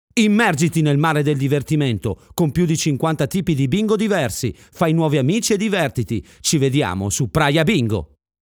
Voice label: ITALIAN MALE PROMO BINGO CASINO COMMERCIAL APP; PRAIA